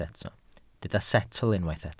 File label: "setl", Welsh